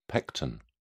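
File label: "pecten", British English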